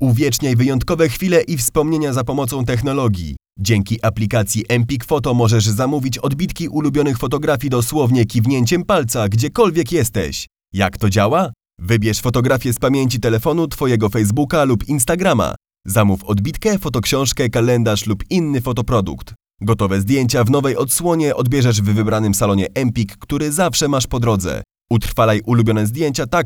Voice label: Male VO Corporate